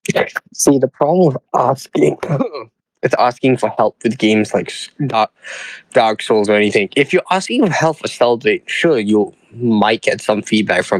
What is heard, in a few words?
voice message